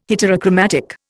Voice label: "heterochromatic", Indian